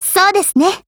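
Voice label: AGREE, Japanese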